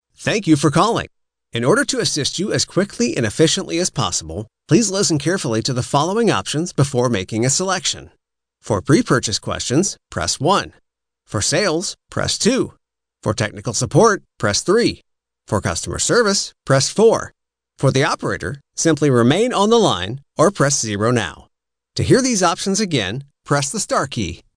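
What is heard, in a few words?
male ivr, Voice Prompts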